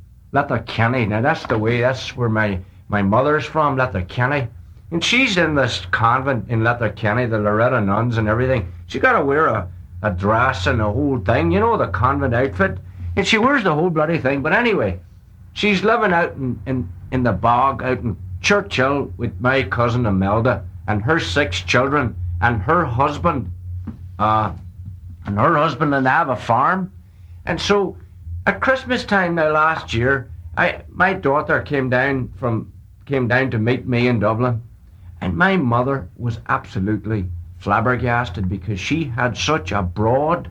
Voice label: Strong Irish accent, Donegal